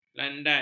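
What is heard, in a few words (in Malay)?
"landai", Malay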